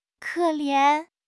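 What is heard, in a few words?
"ke3 lian2", Mandarin Chinese; HSK; HSK4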